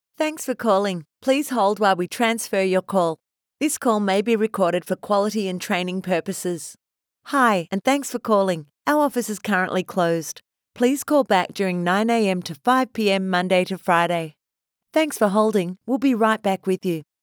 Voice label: PHONE SYSTEM MESSAGES